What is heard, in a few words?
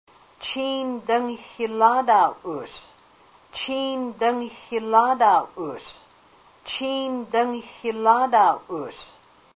are you drying fish, haida language; Chíin / dáng xiláadaa us?; recorded on Wednesday, March, 2003 in Ketchikan